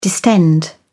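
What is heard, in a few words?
"distend", British English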